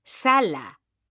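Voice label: "sala", Spanish, female; mekanta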